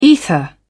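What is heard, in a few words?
"ether", British English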